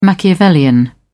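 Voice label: "machiavellian", British English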